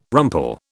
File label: "rumple", British